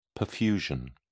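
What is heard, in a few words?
"perfusion", British English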